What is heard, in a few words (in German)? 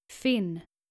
"finn", skandinavische jungennamen